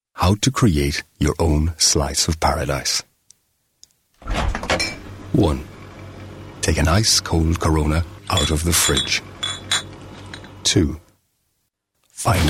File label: Commercial